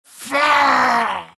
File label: troll death; troll warlord